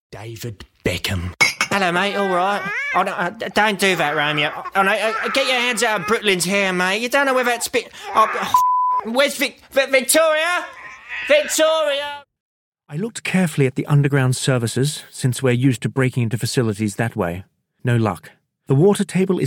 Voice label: Accent England London RP Lancashire